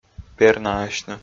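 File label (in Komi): "пернаасьны", Komi, male; audio dict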